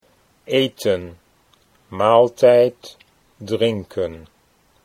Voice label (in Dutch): "eten maaltijd", Dutch